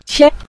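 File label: "qie", Mandarin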